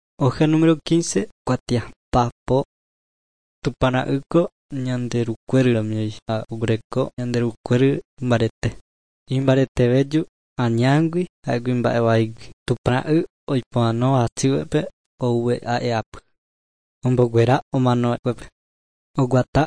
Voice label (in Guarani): guarani mbya; good news and words of life; bible